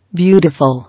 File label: "Beautiful", English